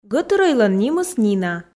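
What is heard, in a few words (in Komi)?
гӧтырӧйлӧн нимыс нина, Komi, female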